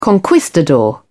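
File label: "conquistador", British English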